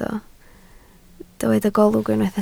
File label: "golwg", Welsh